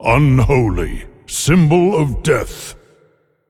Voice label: Male, Trigger; Eternal One